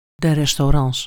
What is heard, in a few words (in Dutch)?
"restaurant", nl